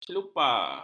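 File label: "celupar", Malay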